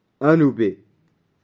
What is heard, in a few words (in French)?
Enfant Anoubi